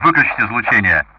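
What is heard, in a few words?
Speech Sound Russian; DCS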